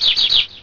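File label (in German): "Spatz", German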